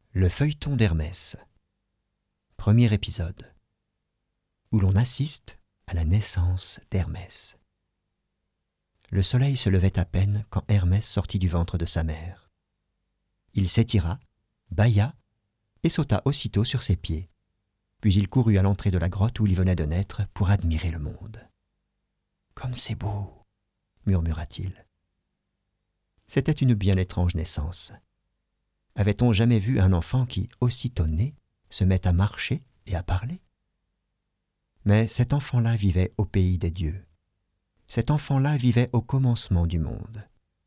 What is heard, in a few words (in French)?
Livre audio, voix-off; Naissance d'Hermes